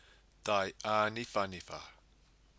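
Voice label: "tai aniwhaniwha", Māori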